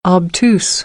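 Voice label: "obtuse", American English; AmericanBritish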